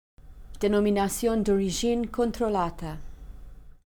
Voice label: "Denominazione d'Origine Controllata", glossary